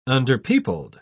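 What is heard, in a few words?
"underpeopled", English, British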